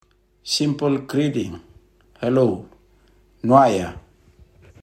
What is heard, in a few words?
Simple Greeting Hello Noaia, Rotuman; 2022 Pacific Language Weeks